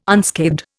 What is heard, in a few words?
"unscathed", Indian